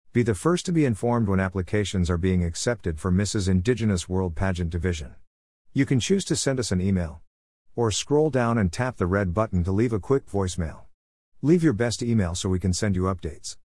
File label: Voicemal Message; Mrs Indigenous